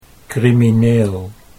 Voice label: "crimineel", Dutch

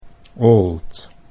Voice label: "old", Dutch English

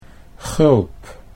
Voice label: "gulp", Dutch

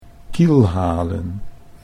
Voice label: "kielhalen", Dutch

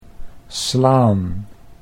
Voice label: "slaan", Dutch

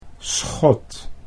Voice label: "schot", Dutch

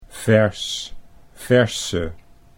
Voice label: "vers verse", Dutch

